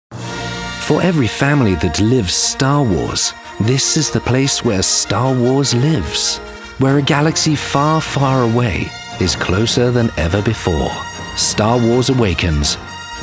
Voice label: Commercial